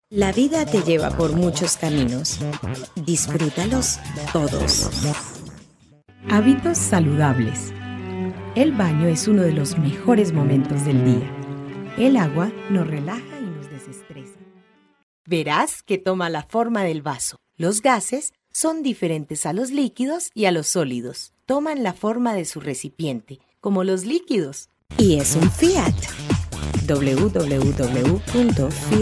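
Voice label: Spanish Latin America female sales; spanish americas voice over talent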